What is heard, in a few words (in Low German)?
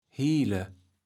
"hiele", Platt